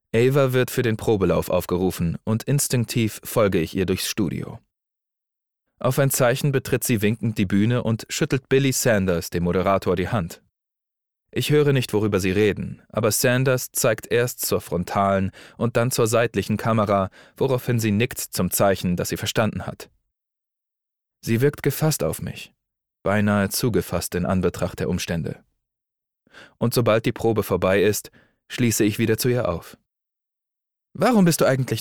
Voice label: Hoerbuch; Forever yours Tomorrow

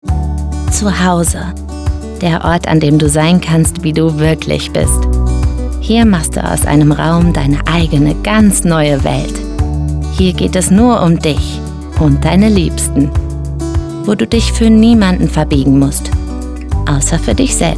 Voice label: Werbung Moebel; LOFT VOICES

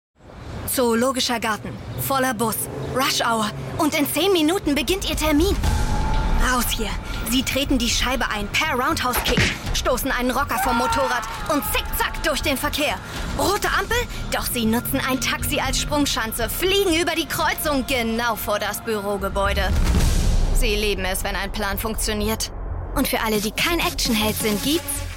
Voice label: Werbung Online Meeting

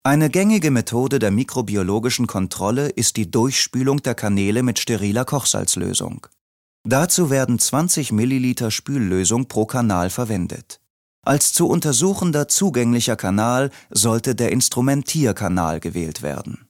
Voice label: kommentar

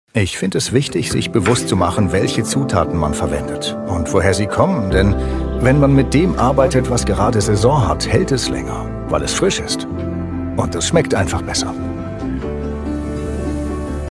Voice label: Voiceover Werbung

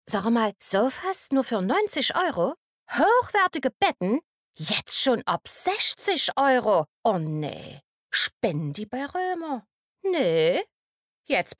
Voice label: Moebelhaus Ostdeutsch

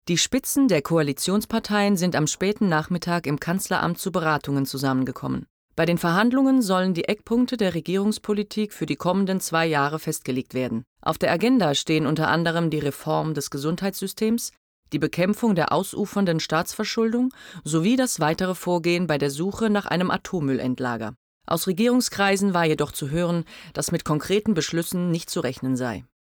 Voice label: Nachrichten; LOFT VOICES

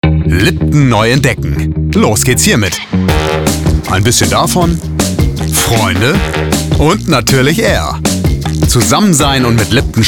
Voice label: Getränke Werbung Jung Fröhlich

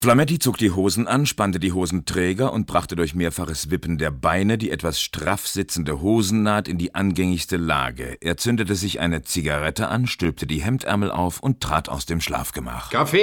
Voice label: erzaehlung

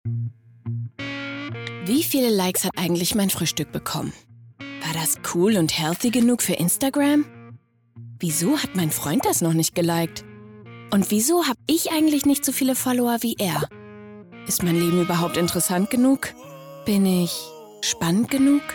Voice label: imagefilm gedankenstimme

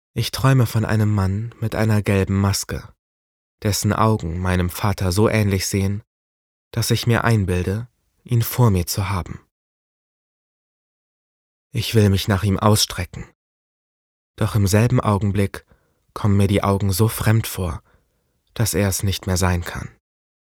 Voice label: Hoerbuch Duester; LOFT VOICES

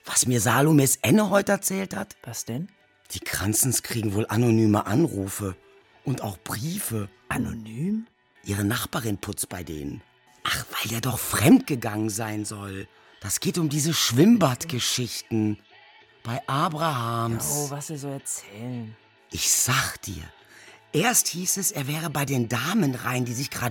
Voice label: Ruhrpott Sauerland Hoerspiel Ausschnitt; LOFT VOICES

